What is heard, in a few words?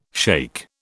"shaikh", British